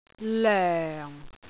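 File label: "loeng4", Cantonese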